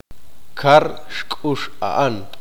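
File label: "él comió pescado", Q'eqchi'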